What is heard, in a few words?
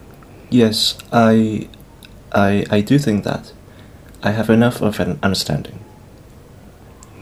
interviewee male filler